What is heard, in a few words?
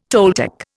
"Toltec", Indian